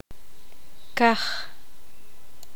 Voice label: poqomam; cielo

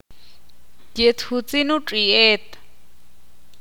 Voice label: ixil; ayer el perro no corri

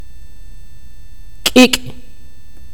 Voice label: "sangre", mopan

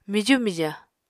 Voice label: "miju mija", Apatani

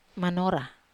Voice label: "manora", Wamesa

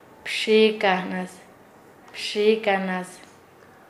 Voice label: "risueno", Nasa Yuwe; paez

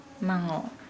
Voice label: Nukuoro; shark

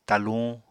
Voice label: "taluñ", Apatani

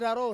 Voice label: "raro", Ansus; inside

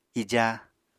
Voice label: "ija", Apatani

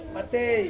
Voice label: "matei", who, Ansus

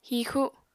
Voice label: "hixu", apatani

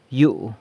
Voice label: "yu" house, San Lucas Quiaviní

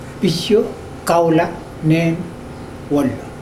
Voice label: the pig ran into the forest, mokilese

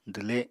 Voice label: "dwle", Apatani

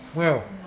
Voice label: "good", Mokilese

